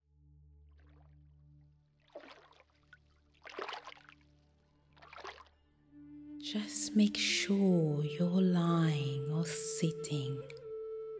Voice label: Manifest Your Dreams Hypnosis for Abundance and Success